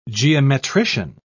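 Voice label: "geometrician", British English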